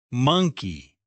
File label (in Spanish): mono inglés; palabras ingles infantil